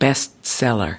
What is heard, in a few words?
"bestseller", us